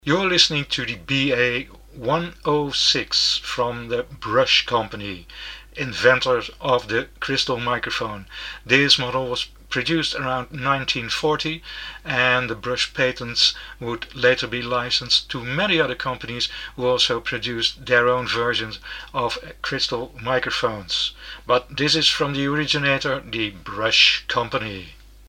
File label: Brush BA106 sound UK